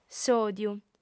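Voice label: "s'òdiu", Sardinian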